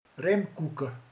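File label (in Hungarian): "körülnéz", Hungarian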